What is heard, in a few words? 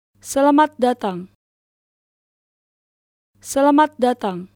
"Welcome", Indonesian